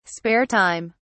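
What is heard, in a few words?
"spare time", British English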